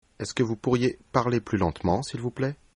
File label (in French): slowly, fr